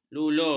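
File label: "lulur", Malay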